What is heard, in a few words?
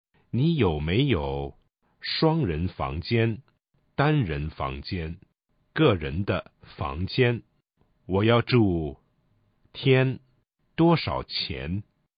Chinese